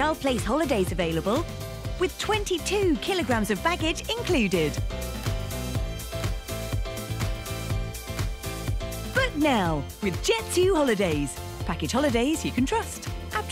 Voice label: family tv advert; Nothing Beats a Jet2holiday; Sale